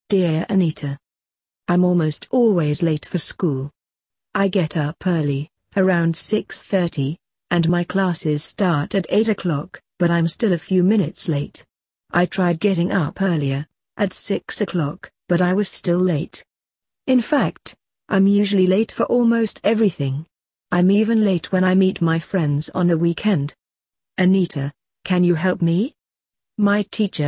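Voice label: British; advice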